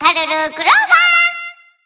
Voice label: System Voice; BBCP; Taokaka